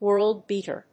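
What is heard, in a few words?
"world-beater", English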